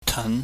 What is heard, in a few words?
"teun", Chinese